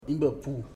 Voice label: goodbye, Ipili

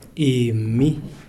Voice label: "I", Kalanga

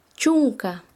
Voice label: Chanka, quechua; diez

